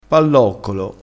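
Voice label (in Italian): "palloccolo", dialettale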